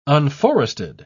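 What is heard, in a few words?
"unforested", English, uk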